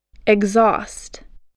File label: "exhaust", spelling